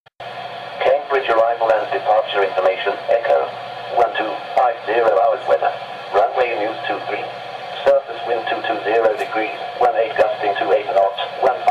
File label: ATIS; Cambridge